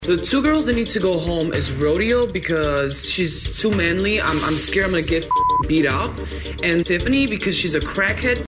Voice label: manly